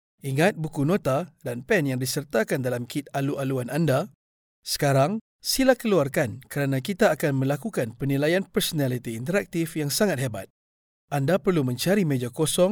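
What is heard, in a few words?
Malay Malaysia male narrative; voice over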